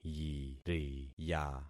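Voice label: "yiriya", concatenated syllables; Affixing experiment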